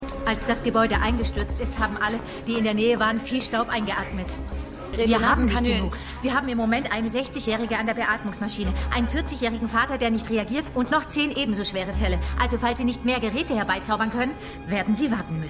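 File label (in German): Ärztin; Supergirl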